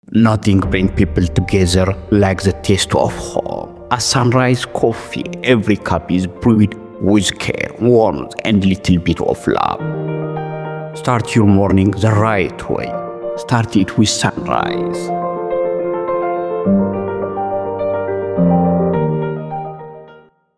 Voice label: English Commercial advert